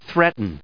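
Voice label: "threat", English, us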